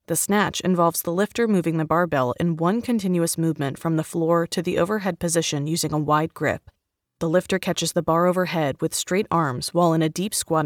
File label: Lifting Training, elearning corporate training